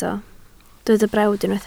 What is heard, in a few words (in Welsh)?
"brawd", Welsh